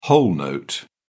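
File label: "whole note", British English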